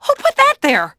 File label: ENG; Cars; XBOX